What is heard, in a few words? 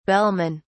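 "bellmen", British English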